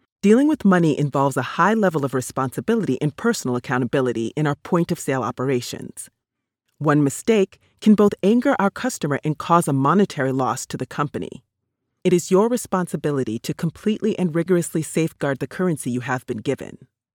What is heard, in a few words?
Corporate Training Point of Sale